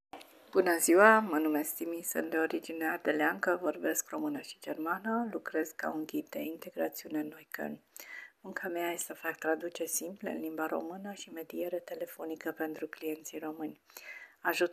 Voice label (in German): rumaenisch